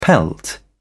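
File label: "pelt", English, British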